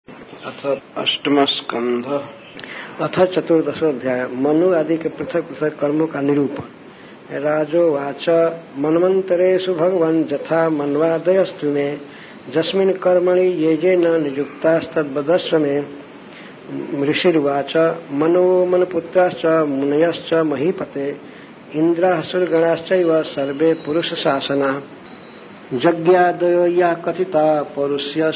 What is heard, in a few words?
Srimad Bhagavatam Shloka Recitation; His Holiness Radha Govinda Swami; Canto-08 Chapter-14